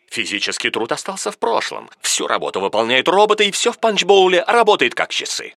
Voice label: narrator